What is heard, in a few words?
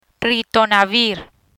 "Ritonavir", Spanish; antiretroviral agents